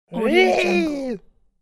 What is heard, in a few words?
cartoon caveman female offended angry